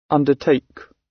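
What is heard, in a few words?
"undertake", British English; Time, history; Thời gian, lịch sử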